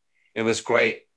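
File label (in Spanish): Voice Acting, Spanish, male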